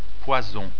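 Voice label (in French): "poison", French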